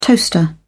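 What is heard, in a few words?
"toaster", British English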